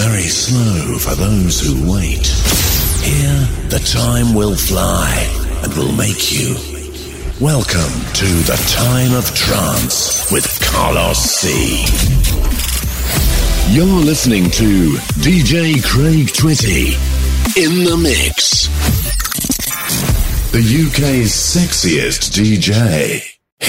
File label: english british radio imaging; Reino Unido; Voz nativa británica, desde RP hasta Essex; Inglés (Reino Unido)